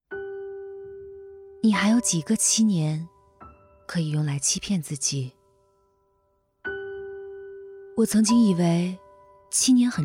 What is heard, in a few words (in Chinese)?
女 独白 励志